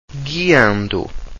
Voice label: "guiando", Portuguese